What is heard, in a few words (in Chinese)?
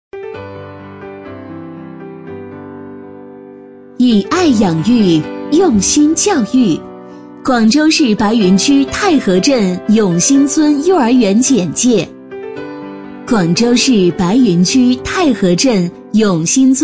女 解说 幼儿园